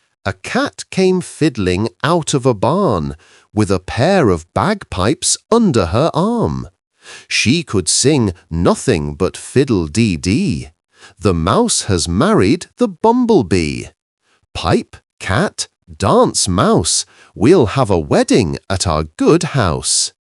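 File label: male British; A Cat Came Fiddling Out of a Barn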